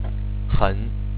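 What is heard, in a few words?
"hen", Chinese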